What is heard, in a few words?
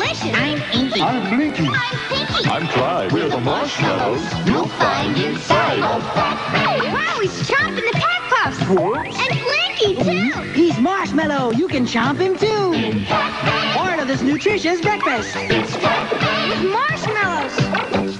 pac man cereal tv commercial; pac man day; kitchen version; 1984; food